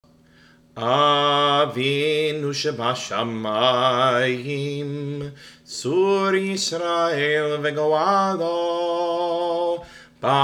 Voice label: Prayer State Israel